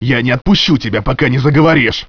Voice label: angry; otis